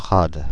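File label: "Prades", French, Paris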